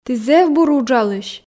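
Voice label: "ті зэв бур уджалысь", Komi, female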